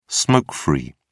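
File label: "smoke-free", British English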